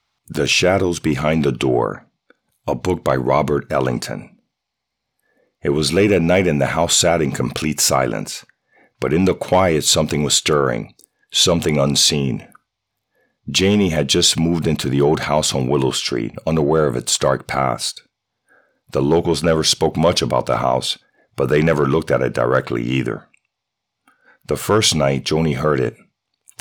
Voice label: audiobook, voice over; Clear Wave Voice Over